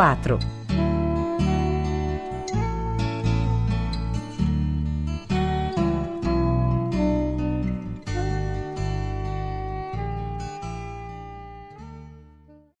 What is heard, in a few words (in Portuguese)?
mensagem personalizada de espera para secretaria eletronica; ekko group